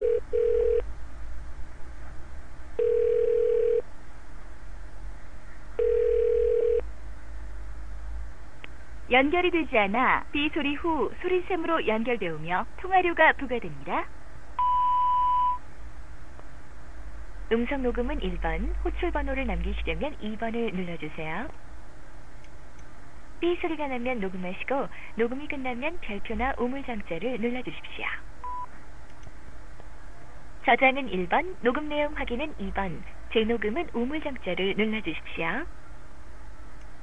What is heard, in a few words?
Voicemail, Korean